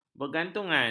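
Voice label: "bergantungan", Malay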